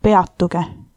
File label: stop, estonian